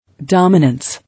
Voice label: "Dominance", English